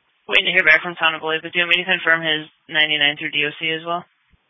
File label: Police Tactical Encrypted; sdr